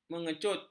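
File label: "mengecut", Malay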